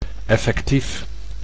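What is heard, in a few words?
"effectief", Dutch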